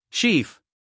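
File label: "chief", American English, male; everyone-can-use-english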